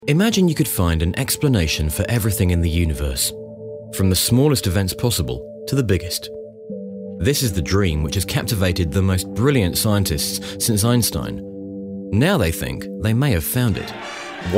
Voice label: Documentary Showreel